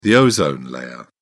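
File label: "ozone layer", British English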